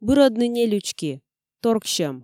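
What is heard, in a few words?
Komi, female; бырӧдны нелючки, торксьӧм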